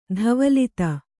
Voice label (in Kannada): "ಧವಲಿತ", Kannada